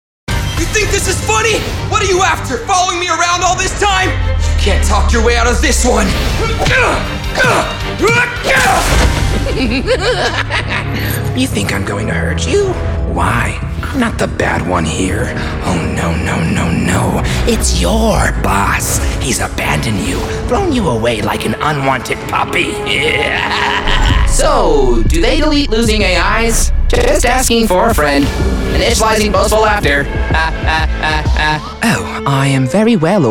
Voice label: animation men video games, voices men